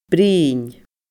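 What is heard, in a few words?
"bruidhinn", Scottish Gaelic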